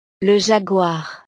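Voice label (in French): "le jaguar", French; el jaguar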